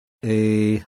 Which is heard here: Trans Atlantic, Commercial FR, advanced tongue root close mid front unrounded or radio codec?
advanced tongue root close mid front unrounded